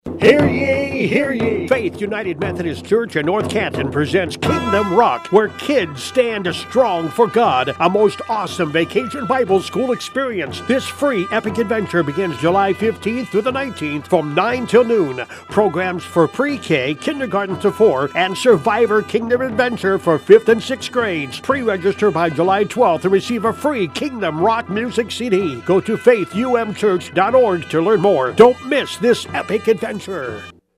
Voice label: radio spot; faith umc; kingdom rock